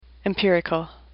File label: "empirical", English; AWL